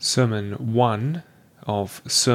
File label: Voice Test No Noise